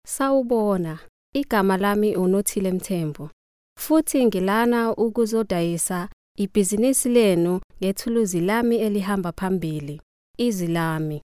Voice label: Zulu